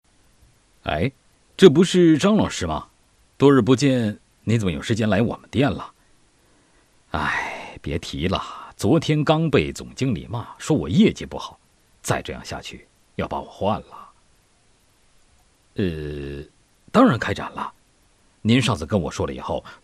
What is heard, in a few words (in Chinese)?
男 自然对话风格, 浑厚低沉